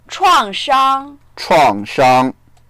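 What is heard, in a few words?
"chuang4shang1", Mandarin Chinese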